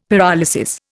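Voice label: "pyrolysis", Indian English; Dictionary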